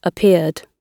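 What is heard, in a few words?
"appeared", British English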